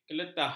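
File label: "keletah", Malay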